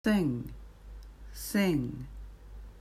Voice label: sing